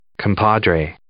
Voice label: "compadre", English